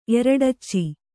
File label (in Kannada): "ಎರಡಚ್ಚಿ", Kannada